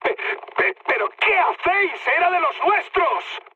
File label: player killed ally, mood high, speech